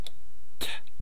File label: "t", East Cree syllabics